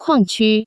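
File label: "kuangqu", Chinese, Taiwan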